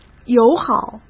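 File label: "友好", Mandarin Chinese; chinese-flashcards; hsk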